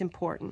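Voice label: "imporQNQ", American English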